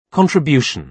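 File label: "contribution", British English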